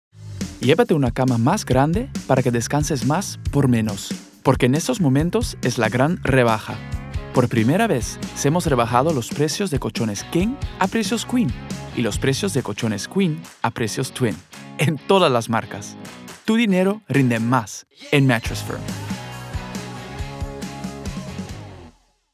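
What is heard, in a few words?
COMMERCIAL Spanish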